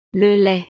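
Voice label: "le lait", French; desayuno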